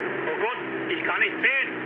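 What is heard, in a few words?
German searchlight mood med, speech; War Thunder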